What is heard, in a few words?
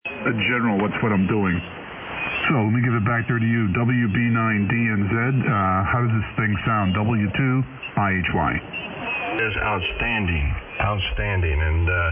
Dual 8 Band recording